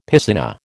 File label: "piscina", American